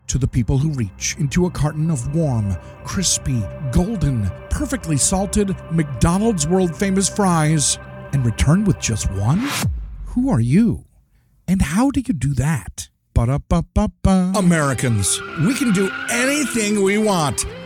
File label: commercial voiceover